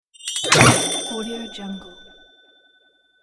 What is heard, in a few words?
funny accent